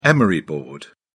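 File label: "emery board", British English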